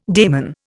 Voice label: "daimon", Indian

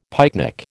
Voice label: "pycnic", American

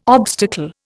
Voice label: "obstacle", Indian

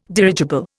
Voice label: "dirigible", Indian

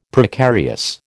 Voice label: "Precarious", American

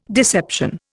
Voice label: "deception", Indian

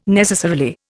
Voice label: "necessarily", Indian

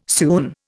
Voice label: "soon", Indian

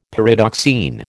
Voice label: "Pyridoxine", American

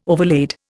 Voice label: "overlaid", Indian

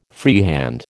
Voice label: "freehand", American

